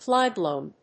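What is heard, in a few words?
"flyblown", English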